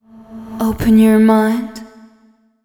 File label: fem spo, Vocals; Mutekki Media; Diamond Vocals 2